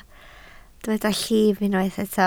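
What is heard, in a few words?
"llif" Welsh, spoken word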